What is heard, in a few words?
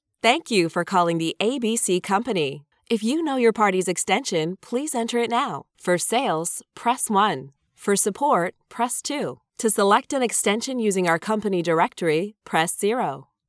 professional greeting sample female